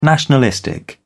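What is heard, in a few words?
"nationalistic", British English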